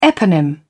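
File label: "eponym", British English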